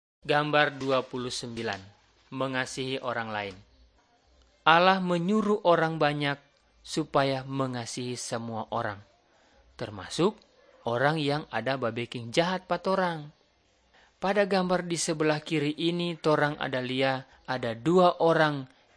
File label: malay manado; good news